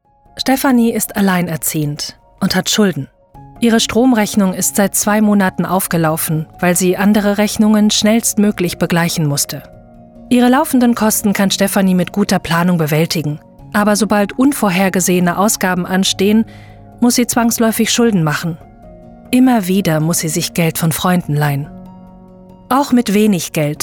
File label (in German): Reportage hautnah